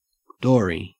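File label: "dory", Australian English; pronunciations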